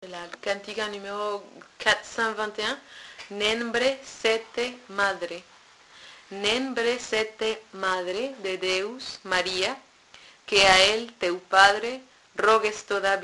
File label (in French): texte galicien diction; Cantiga 11b